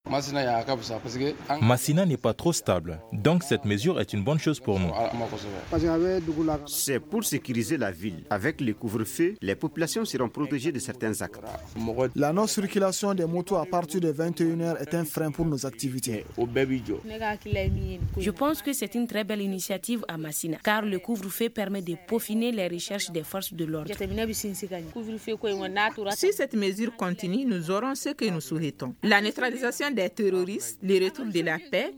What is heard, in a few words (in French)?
VOXPOP; MACINA COUVRE FEU; FR; une vingtaine de terroristes neutralises au centre du mali